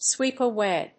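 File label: "sweep away", English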